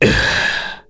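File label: Vox Deny, Korean; Bernheim; kingsraid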